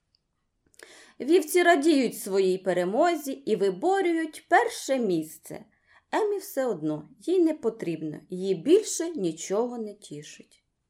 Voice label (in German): ukrainisch